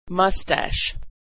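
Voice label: "moustache", American English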